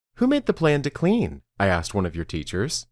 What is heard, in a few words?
Dictation